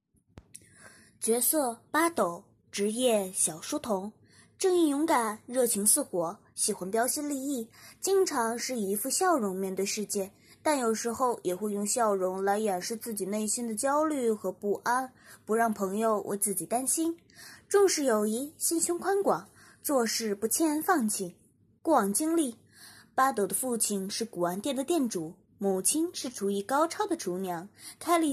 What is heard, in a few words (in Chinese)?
样音儿童读物类